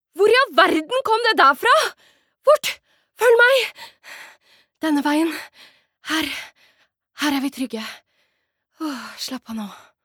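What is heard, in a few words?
dub, Norwegian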